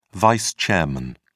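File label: "vice chairman", British English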